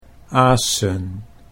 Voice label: "asen", Dutch